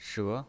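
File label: "she", Mandarin Chinese pinyin, second tone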